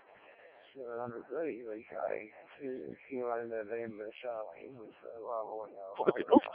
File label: decoded speech, 7177KHz